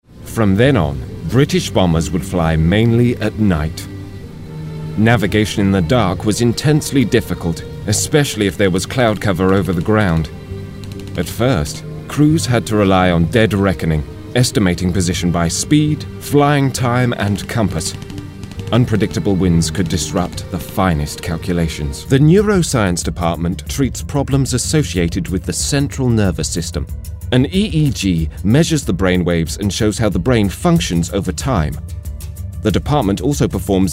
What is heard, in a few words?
Narrative